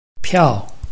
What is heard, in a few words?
"piao4", Chinese